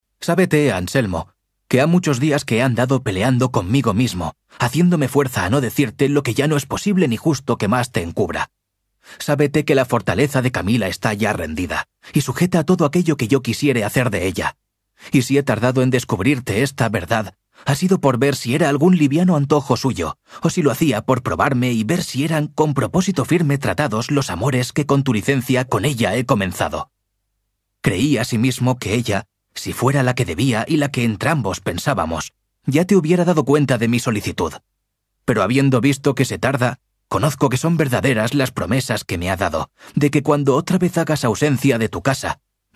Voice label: audiolibro, Locutores profesionales; banco de voces

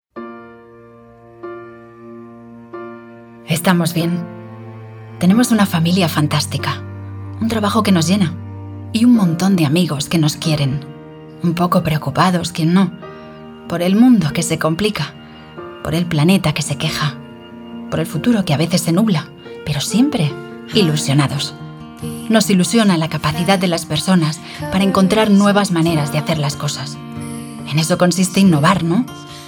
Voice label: Corporativo; banco de voces

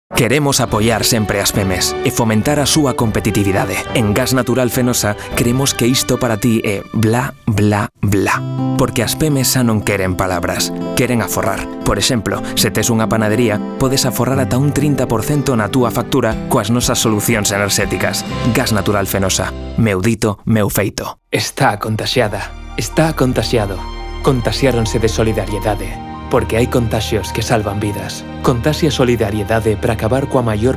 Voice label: VOZ Gallego, banco de voces

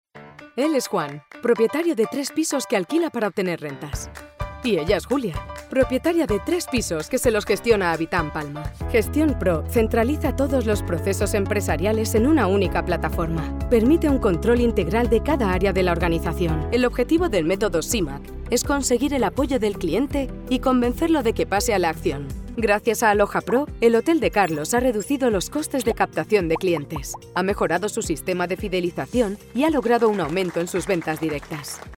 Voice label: Explainer; banco de voces

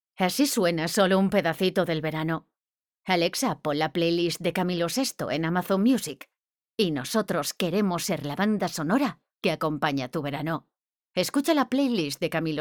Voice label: publi; banco de voces

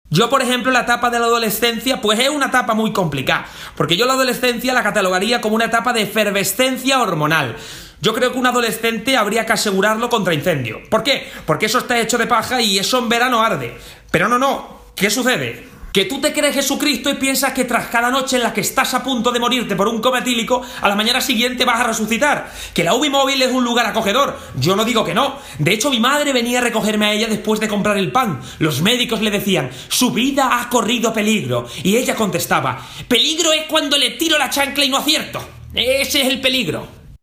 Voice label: Monologo Interpretacion; banco de voces

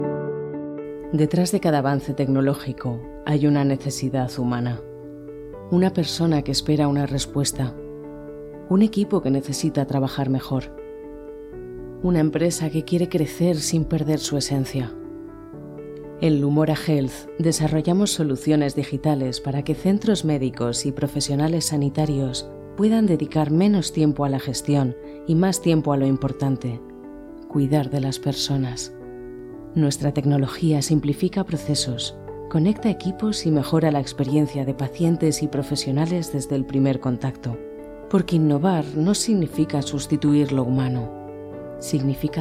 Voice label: Corporativa Emocional; banco de voces

